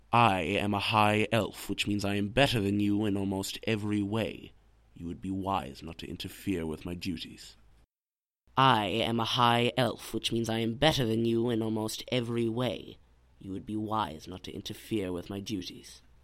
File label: high elf voice auditions; skywind